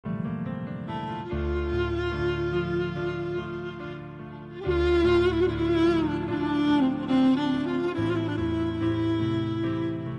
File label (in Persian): دکلمه; دیگر نمیخواهم تو را